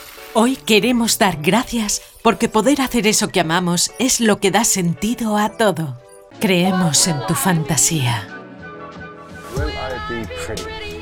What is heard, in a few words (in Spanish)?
Publicidad, mujeres 35 a 50